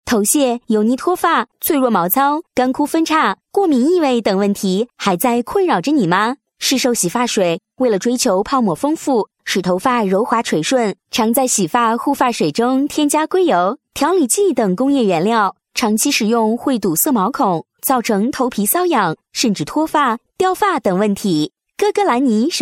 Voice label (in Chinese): 女 宣传广告 洗发水宣传广告; 歌歌兰妮